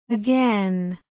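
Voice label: "again", Indian English, female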